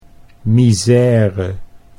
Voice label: "misere", Dutch